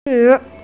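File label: "zhi" Chinese, female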